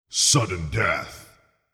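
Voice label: sudden death, Video Game Announcer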